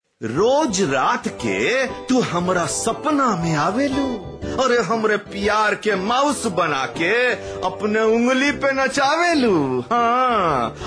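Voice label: Awadhi, male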